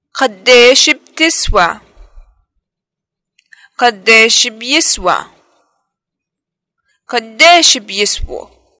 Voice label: money what is the price, arabic levantine